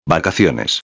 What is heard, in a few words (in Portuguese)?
"vacaciones", espanhol